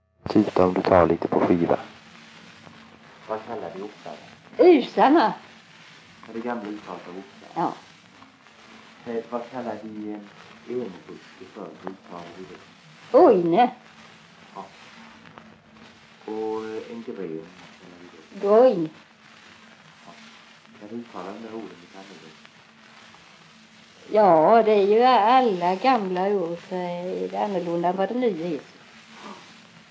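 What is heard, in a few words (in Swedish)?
Tving Blekinge, Svenska sagor dialekter; Folkminnen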